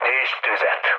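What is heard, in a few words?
attack position, mood low, Hungarian; speech; War Thunder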